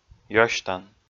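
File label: "ёсьтан", Komi, male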